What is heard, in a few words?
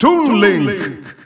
Dutch Announcer; Toon Link; SSBU